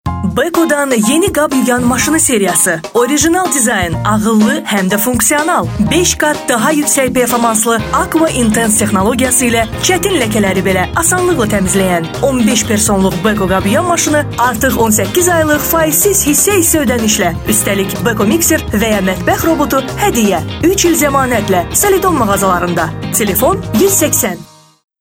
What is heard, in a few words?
Azerbaijan female